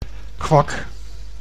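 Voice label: "kwak", Dutch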